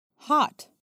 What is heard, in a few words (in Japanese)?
アメリカ英語 "hot"